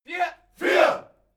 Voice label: military shout german "four"

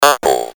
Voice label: "apple", voices

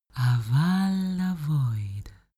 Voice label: female talk elfish

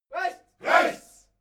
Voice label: military shout german right

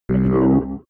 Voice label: robot voice no fx

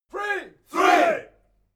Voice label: military shout english "three"

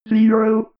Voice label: robot voice zero

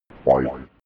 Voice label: robot voice five fx

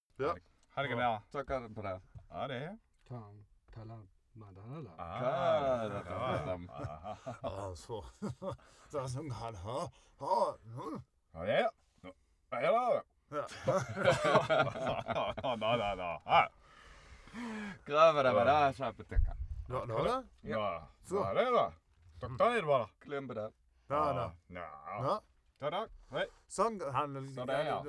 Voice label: talk calm close laugh

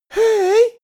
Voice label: boy shy "hey"

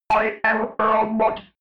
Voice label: robot voice i am robot fx

